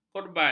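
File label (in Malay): "korban", Malay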